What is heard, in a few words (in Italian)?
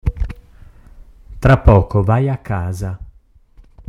italiano